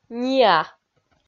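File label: "ниа", Komi-Zyrian, female; kpv audio dict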